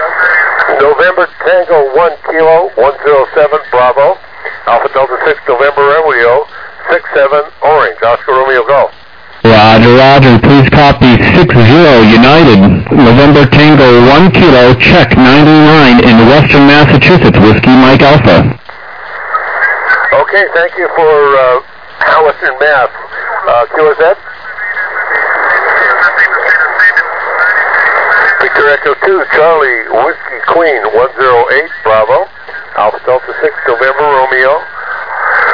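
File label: upper sideband 21MHz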